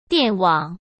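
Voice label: "电网", Mandarin Chinese; chinese-flashcards; hsk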